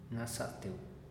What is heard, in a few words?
"naza'ateu", Teotitlán del Valle Zapotec; abundante